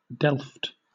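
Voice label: "delft", British English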